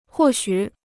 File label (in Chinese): "或许", Chinese